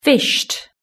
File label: "fished", British English